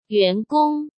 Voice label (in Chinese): "员工", Chinese